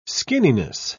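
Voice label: "skinniness", British English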